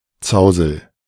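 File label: "Zausel", German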